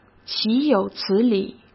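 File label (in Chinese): "岂有此理", Mandarin Chinese; chinese flashcards; hsk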